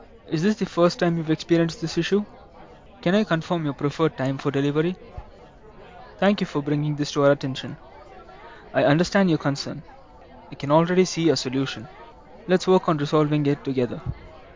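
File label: Before, Original speech, Indian accent; Krisp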